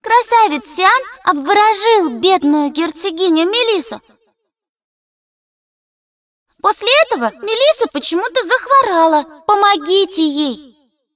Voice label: cinematic voice, ru; lineage2

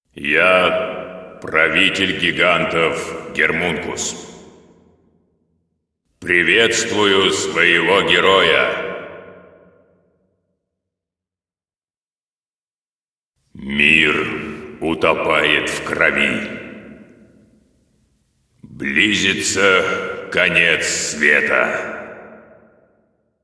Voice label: cinematic voice, ru; lineage2

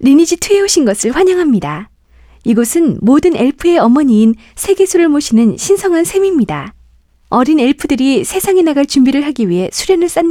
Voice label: tutorial voice; lineage2

